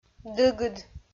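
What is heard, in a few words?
"дыгыд", Komi, female; kpv audio dict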